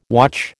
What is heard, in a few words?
"watch", American